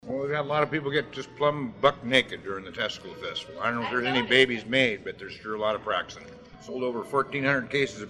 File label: Montana man 50s monologue; United States Midwest Dialects